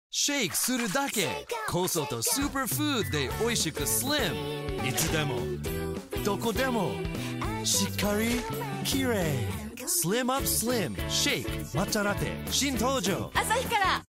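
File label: japanese, USA, male; narrator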